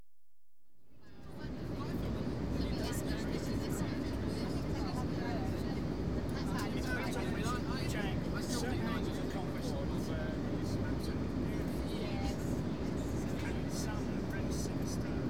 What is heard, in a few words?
CONVERSATIONS DURING DESCENT, Aircraft; The BBC Sound Effects Library